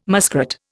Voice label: "muskrat", Indian